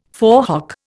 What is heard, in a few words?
"forehock", Indian